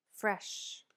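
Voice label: "Fresh", English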